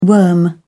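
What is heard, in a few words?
"worm", British English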